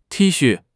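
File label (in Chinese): "T xu4", Mandarin Chinese; 汉语口语新时代-初级下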